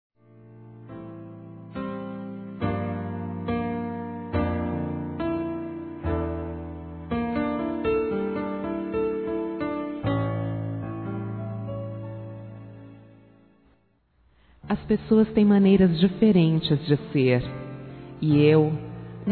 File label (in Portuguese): POR NOSSAS BRIGAS F, Telemensagens; Desculpas; fem; Telemensagens 2017